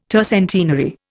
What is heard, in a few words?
"tercentenary", Indian